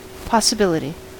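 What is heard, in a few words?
"possibility", US English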